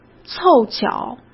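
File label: "凑巧" Mandarin Chinese; hsk; chinese-flashcards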